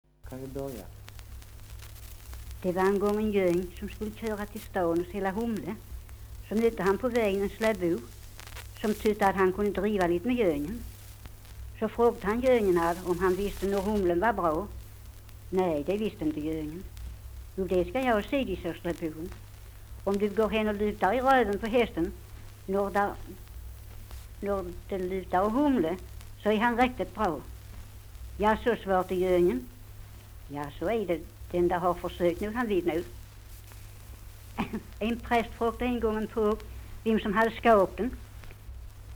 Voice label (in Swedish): Svenska sagor, dialekter; Folkminnen